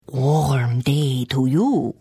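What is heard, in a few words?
Hello, Khajiit female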